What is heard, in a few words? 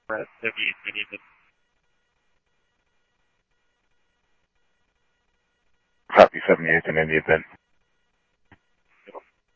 Police, scanner audio trunk